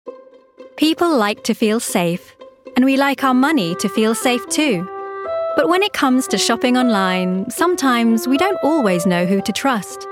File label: commercial copy